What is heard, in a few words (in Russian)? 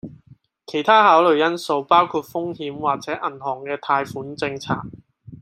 Голоса, Гонконгский; commonvoice